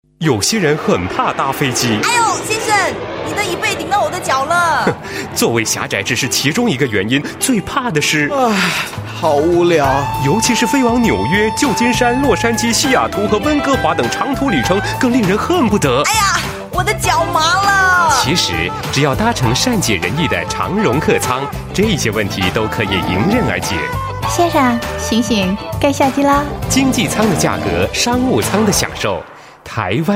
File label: Radio Mandarin, China male